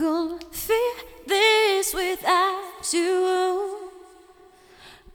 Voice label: Mixed Berry Jam Voice, 70s Analog Funk

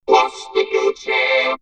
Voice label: Vocoder Bust D New Jam, robot vox; X-Static Goldmine

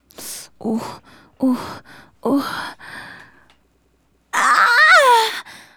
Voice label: Excited, Hip-Hop and RnB Vocals

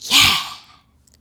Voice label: "Yeah" Whisper, Swingin Chiks Spoken

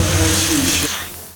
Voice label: VOICE, VOICE-FX

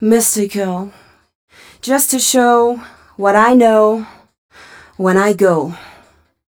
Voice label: fem spo, Vocals

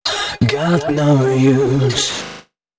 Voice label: male d# 130, short hooks, Dance Voice

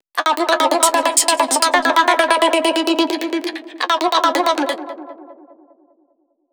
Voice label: female 132, voice; FX; Mutekki Media; Dance Ultra Voice VOL-1

